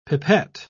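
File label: "pipet", British English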